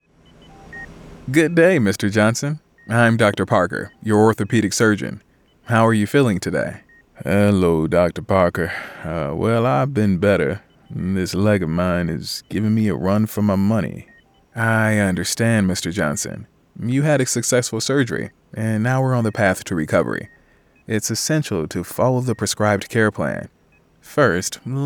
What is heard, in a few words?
Interactive Medical Narration